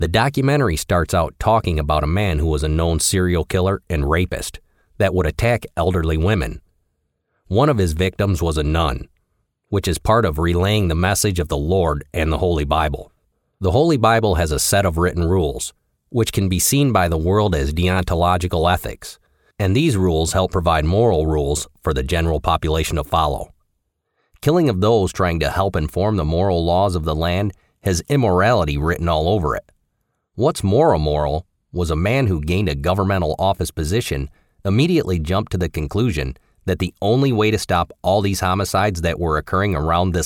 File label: english us m narration, voice demos